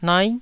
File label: "nai9", taiwanese